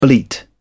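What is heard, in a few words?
"bleat", British English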